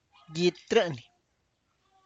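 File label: tlacochahuaya, Zapotec; tender pumpkin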